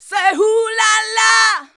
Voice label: "say", shouts; Best Service; Hyper Dance